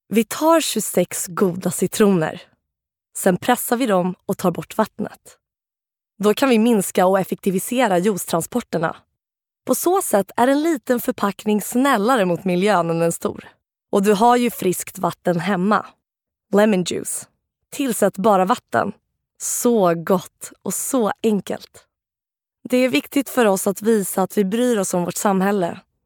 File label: Swedish Commercial